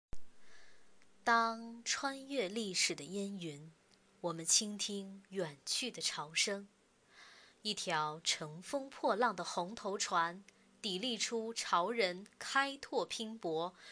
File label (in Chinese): 宣传片